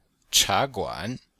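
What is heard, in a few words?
"cha2guan3", Chinese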